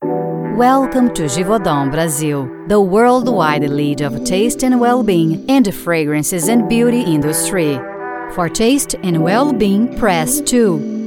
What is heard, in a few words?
IVR Telephone On Hold, EN; GivaudanBrasil